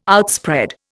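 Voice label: "outspread", Indian English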